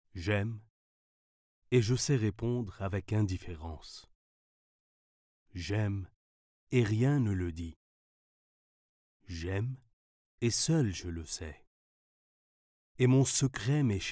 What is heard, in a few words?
French Male